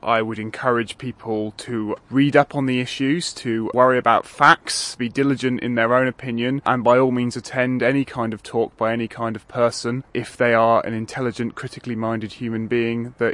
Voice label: vox pop; brexit